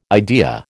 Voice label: "idea", American

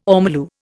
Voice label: "ormolu", Indian

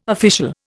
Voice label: "official", Indian

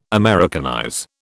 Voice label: "Americanize", British

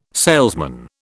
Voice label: "salesman", British

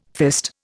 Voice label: "fist", Indian

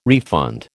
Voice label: "refund", American

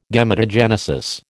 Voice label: "Gametogenesis", American

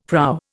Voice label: "prow", Indian; Dictionary

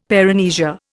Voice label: "paramnesia", Indian; Dictionary

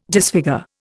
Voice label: "disfigure", Indian

